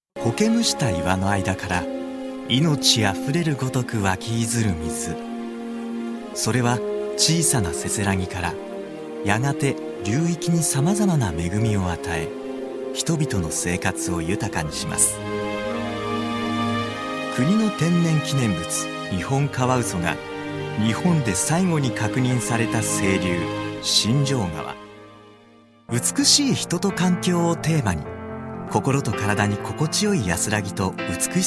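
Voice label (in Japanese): 日本語ナレーター 男性, 若々しい透明なトーン; 若々しい透明なトーンは、様々な実績によって更に鍛えられ しっかりした説得力に。; GAIKEI Models & Narrators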